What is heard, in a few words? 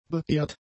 "beehrt", German; adjectives